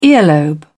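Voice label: "earlobe", British English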